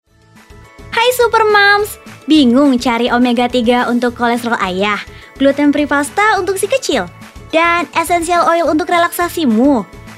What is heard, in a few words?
INDO CASUAL